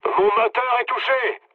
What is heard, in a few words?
damaged engine mood low, speech fr; War Thunder